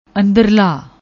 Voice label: "anderla", Punjabi

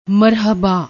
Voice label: "marhabba", Punjabi; ਮਰਹਬਾ

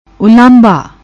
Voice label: "ulaahbha", Punjabi; ਉਲਾਂਭਾ

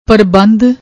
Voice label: "parbandh", Punjabi

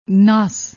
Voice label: "naas", Punjabi; ਨਾਸ

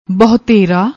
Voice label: "bahotera", Punjabi

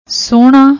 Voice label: "sohna", Punjabi

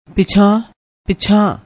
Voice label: "pichaan", Punjabi

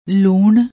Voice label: "loonn", Punjabi

